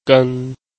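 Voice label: "gen", Chinese, tone 1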